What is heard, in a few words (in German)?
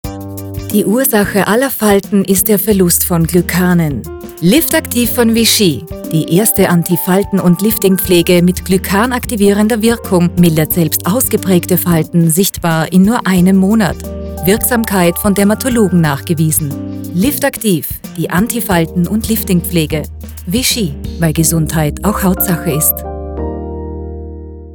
Werbung